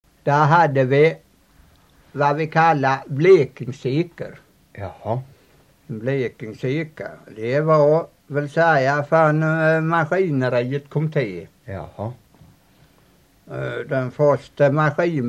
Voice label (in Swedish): Torhamn, dialekter